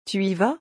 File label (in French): "tu y vas", French